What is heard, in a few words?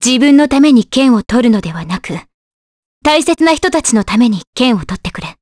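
vox dialogue, voices, Japanese; Scarlet; kingsraid audio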